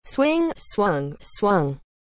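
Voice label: "swing", English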